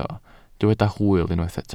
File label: "hwyl", Welsh